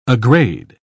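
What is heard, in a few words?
"aggrade", British English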